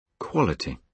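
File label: "quality", British English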